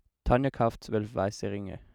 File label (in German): normale Stimme Mann